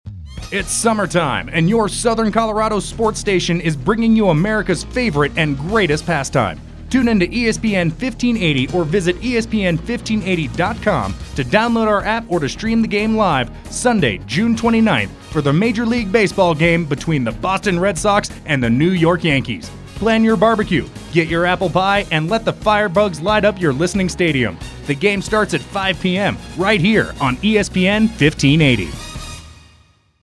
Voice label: Promo; MLB